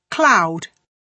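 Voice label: "cloud", British English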